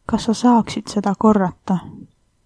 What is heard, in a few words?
say again, estonian